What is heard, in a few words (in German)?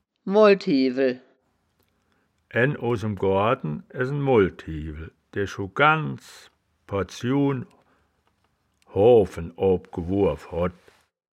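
"moldhiewel", wittlicher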